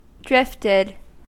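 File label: "drifted", US English; pronunciations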